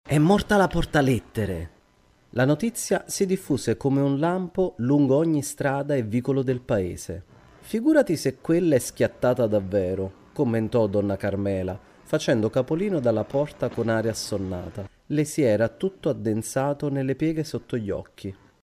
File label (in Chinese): 意大利男声